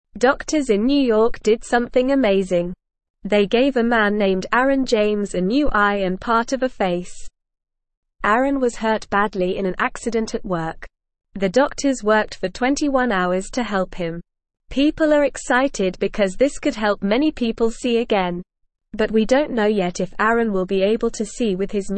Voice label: English Lower Intermediate NORMAL Reading; Amazing Man in New York gets new face; English Newsroom

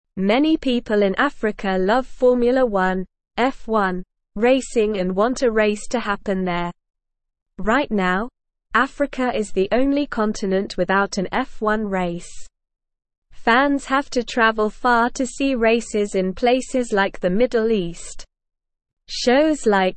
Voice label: English SLOW Reading; Formula 1 Racing Africa Wants a Race

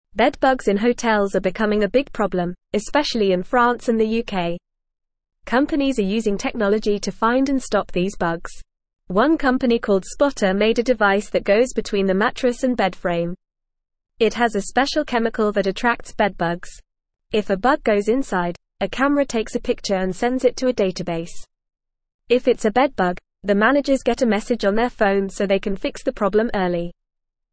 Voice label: English Upper Intermediate FAST Reading; Hotels Turn to Tech and Dogs to Fight Bedbugs; English Newsroom

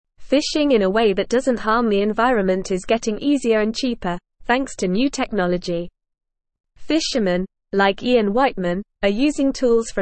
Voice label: English Upper Intermediate NORMAL Reading; Advancements in Fishing Technology for Sustainabl; English Newsroom

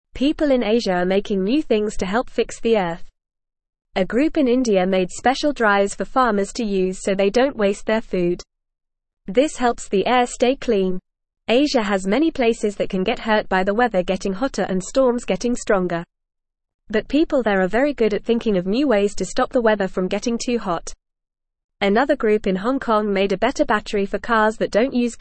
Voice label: English Newsroom Beginner FAST Reading; People in Asia Helping Fix the Earth

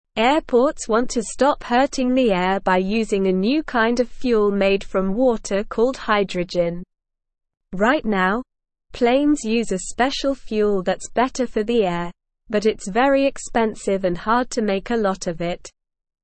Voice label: English Beginner SLOW Reading; Airports try new fuel made from water; English Newsroom

